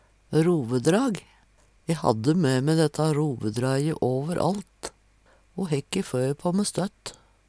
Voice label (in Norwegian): rovedrag, Numedalsmål